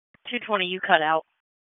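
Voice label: Police Northeast District Dispatch, Raleigh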